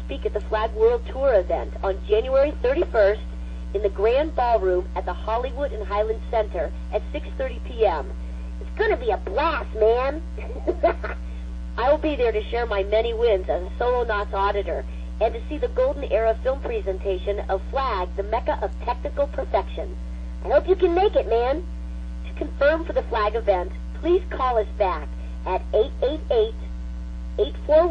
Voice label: phone; nancy cartwright